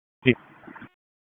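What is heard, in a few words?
FIRE Operations, p25; FIRE OPS 2; wake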